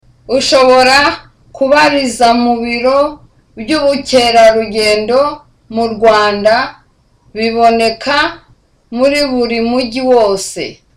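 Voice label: Kinyarwanda, With confidence; Ushobora kubariza mu biro by'ubukerarugendo mu Rwanda biboneka muri buri mujyi wose.; You can get the information from the Rwanda Tourism Offices located in every town.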